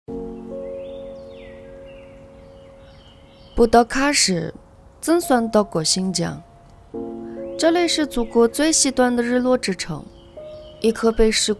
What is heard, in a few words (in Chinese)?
女 新疆普通话